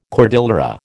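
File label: "cordillera", American English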